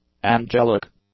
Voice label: "angelic", English; adjectives

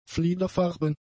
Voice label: "fliederfarben", German; adjectives

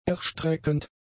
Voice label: "erstreckend", German; adjectives

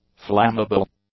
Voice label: "flammable", english; adjectives

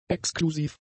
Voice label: "exklusiv", German; adjectives

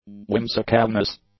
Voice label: "whimsicalness", English

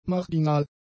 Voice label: "marginal", German; adjectives

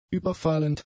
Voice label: "überfallend", German; adjectives

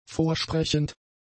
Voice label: "vorsprechend", German; adjectives

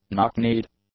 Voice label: "knock-kneed", english; adjectives

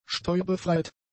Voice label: "steuerbefreit", German; adjectives

